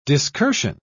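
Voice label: "discursion", British English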